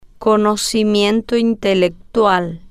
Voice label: "conocimiento intelectual", Spanish

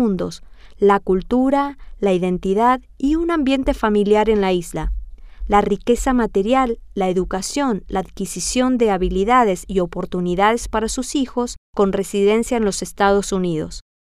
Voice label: el pueblo de Puerto Rico, spanish

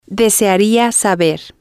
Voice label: desearía saber, Spanish

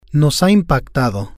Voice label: "nos ha impactado", spanish

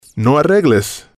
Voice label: no arregles, Spanish

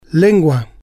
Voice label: "lengua", spanish

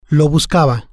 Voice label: "lo buscaba", Spanish

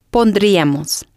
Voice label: pondríamos, Spanish

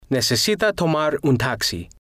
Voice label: necesita tomar un taxi, Spanish

